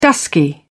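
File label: "dusky", British English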